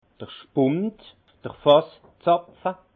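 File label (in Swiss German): "spund", Alsace Haut Rhin; vin; bonde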